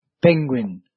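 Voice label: "penguin", English; grade4; vertebrates invertebrates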